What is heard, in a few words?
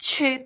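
"cyut2", Cantonese; microsoft Hiu Maan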